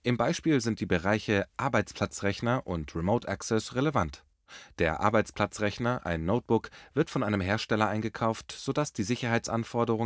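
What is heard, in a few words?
German e-learning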